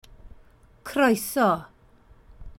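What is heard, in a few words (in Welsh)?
"croeso", Welsh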